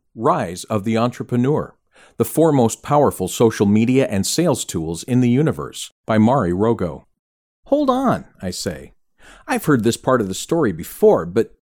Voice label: Audio Book